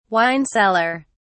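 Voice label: "wine cellar", British English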